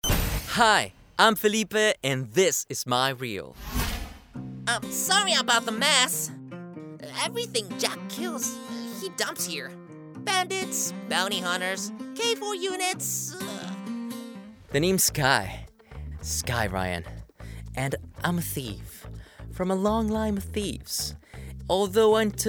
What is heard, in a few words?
Animation English